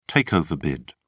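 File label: "takeover bid", British English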